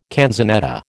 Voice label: "canzonetta", American

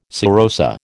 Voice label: "serosa", American

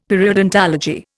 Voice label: "periodontology", Indian; Dictionary

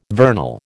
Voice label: "vernal", American

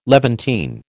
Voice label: "Levantine", American

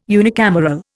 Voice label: "unicameral", Indian

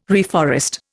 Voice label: "reforest", Indian

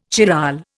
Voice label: "chiral", Indian

